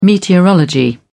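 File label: "meteorology", English, British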